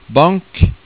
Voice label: "bank", Norwegian

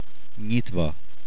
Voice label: "Open", hungarian

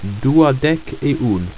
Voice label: "dudekun", ido

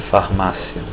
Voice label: pharmacy, portuguese

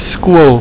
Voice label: "skool", Afrikaans

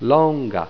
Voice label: "longa", esperanto

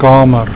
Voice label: "kamer", Afrikaans

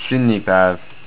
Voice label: Birthday, estonian

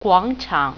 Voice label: "square", Mandarin

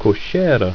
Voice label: "koshera", ido

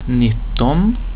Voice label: "nitton", swedish; word of day

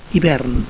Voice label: "hivern", catalan

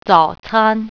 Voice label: breakfast, mandarin

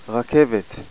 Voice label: "train", Hebrew

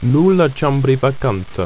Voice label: "nulacha", ido

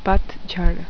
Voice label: fall, hindi

